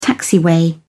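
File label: "taxiway", British English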